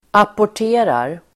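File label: "apporterar", Swedish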